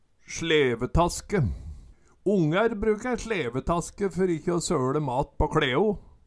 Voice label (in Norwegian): slevetaske, Numedalsmål